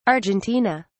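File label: "Argentina", British English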